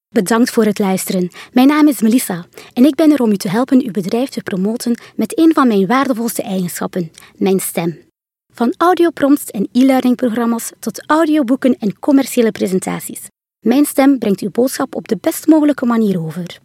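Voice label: Dutch, General; GoLocalise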